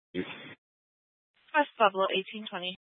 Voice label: Mesa PD Dispatch; Fiesta